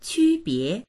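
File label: "qu1bie2", Chinese; 区别; 区別（する）、差異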